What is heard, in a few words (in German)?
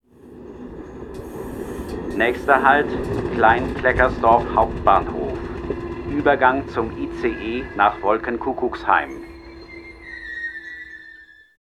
Strassenbahn Durchsage